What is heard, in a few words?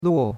"luo4", chinois; Cours de Chinois